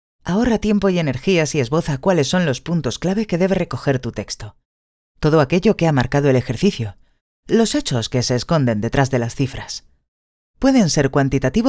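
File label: Spanish Spain female narrative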